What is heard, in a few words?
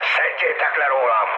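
Hungarian aircraft damaged mood high, speech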